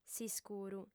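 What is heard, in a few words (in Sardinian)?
"s'iscuru", female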